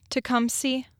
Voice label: "Tecumseh", Michigan